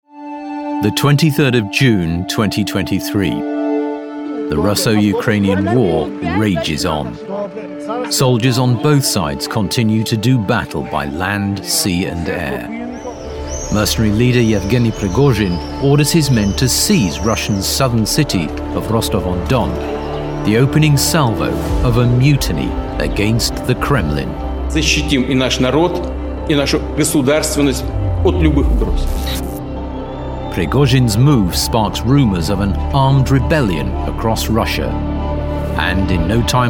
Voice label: english british documentaries, british voice actors; Source Connect Studio